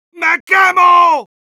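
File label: Heavy auto on fire, Spanish; "¡Me quemo!"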